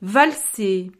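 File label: "valser", French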